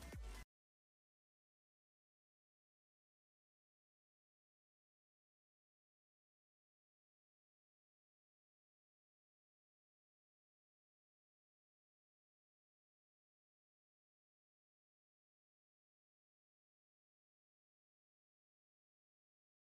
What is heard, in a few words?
mic test; Samson Q9u